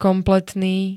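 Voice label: "kompletný", Slovak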